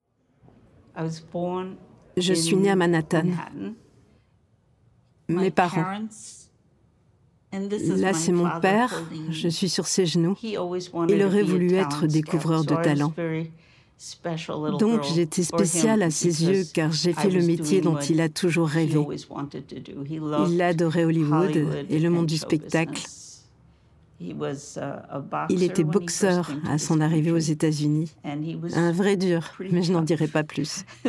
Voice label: Docu; Jeanne Moreau

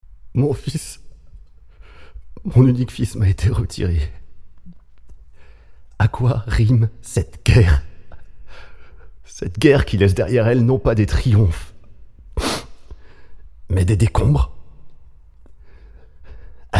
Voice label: Voix APEURÉE du poilu WWI, écrivant une lettre à son père

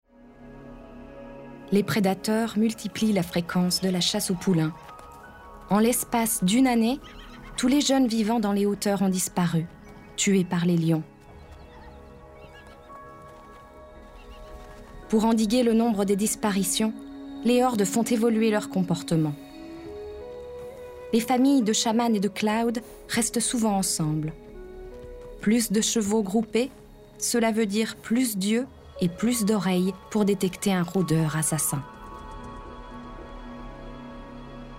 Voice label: Docu animalier; voix off

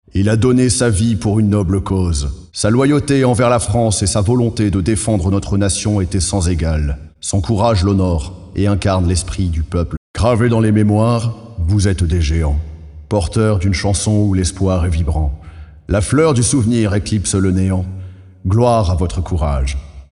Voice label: Voix ATTRISTÉE du père en deuil, fils mort au combat WWI

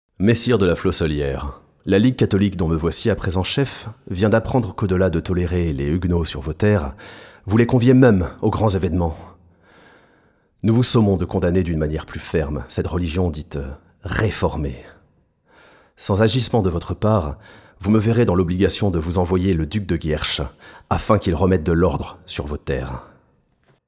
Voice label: Voix AUTORITAIRE, rédigeant une lettre; Napoléon BONAPARTE

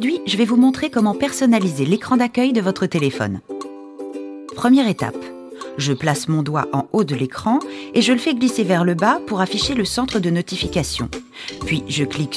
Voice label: Tuto; Microsoft

